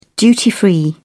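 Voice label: "duty free", British English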